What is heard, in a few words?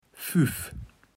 "füff", Kaevels Platt